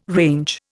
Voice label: "range", Indian